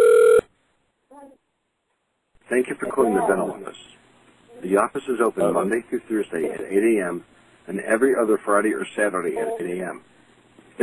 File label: Outgoing Message